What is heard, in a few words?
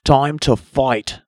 fight time, announcer; Construct Beta Files; blue